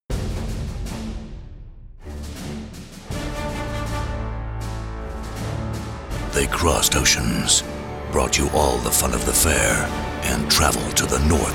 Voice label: Deep Trailer Voice